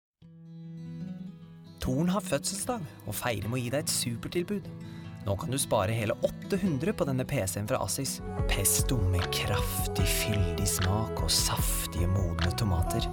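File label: Norwegian, General; GoLocalise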